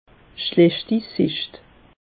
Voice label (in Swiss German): "schlechti sicht", alsace; meteo